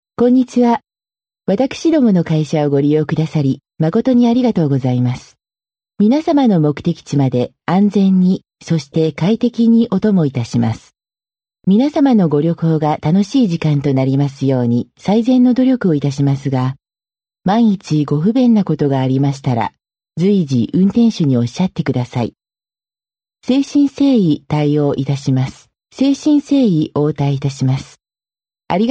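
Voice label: Japanese female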